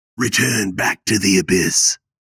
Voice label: vocal return back to the abyss male 30s; fantasy voice

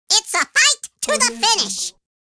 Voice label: cartoon voice its a fight to the finish

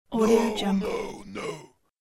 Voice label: old male triple no

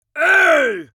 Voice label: cartoon vocal angry complaining ogre

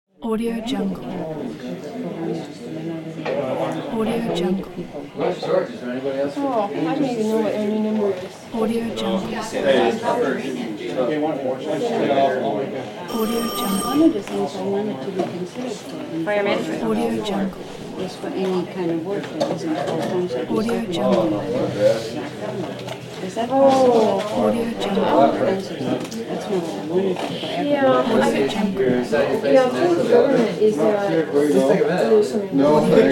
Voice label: office chatter

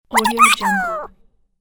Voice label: cartoon chipmunk surprised ask; fxprosound

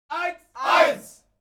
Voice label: human crowd military shout german one; smartsoundfx

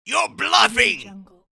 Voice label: pirate saying you're bluffing

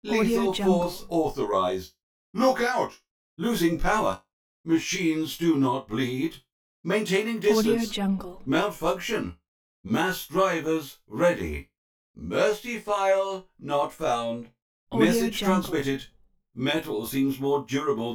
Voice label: male presenting android effect; soundstormlabs

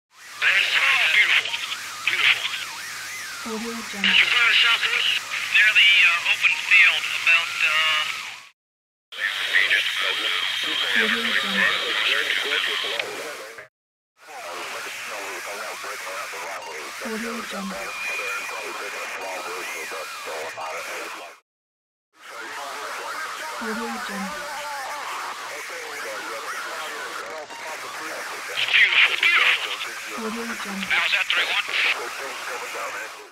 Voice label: war radio chatter; mantlee

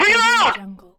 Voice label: female military radio voice reload

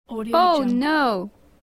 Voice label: female oh no

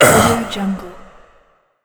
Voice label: ghostly death knight pain

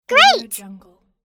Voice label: cartoon girl "great"

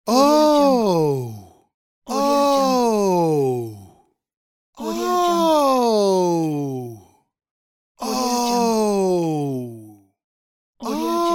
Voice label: man wonder oh

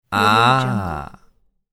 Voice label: man "ah ha"

